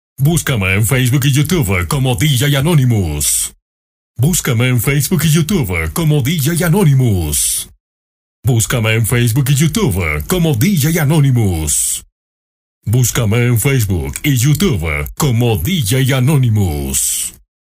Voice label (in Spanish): VOZ OFF PERU